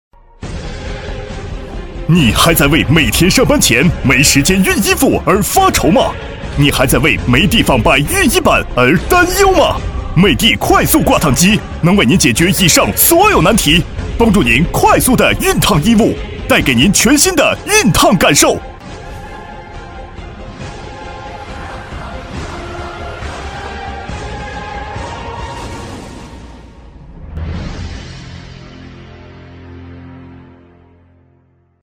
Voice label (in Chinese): 男-广告-快速挂烫机电购; 美的快速挂烫机